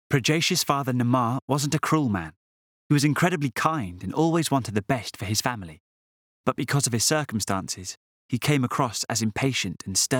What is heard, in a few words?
Audiobook